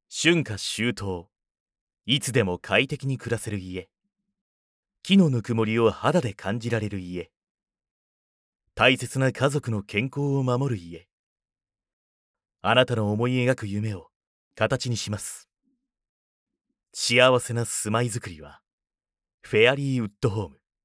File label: male